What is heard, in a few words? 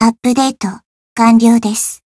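Voice growth Japanese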